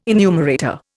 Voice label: "enumerator", Indian